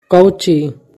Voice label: "Kauchi/ ka", Magahi; What

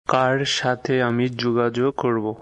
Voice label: Bengali, Bangladeshi Accent; Whom should I contact?

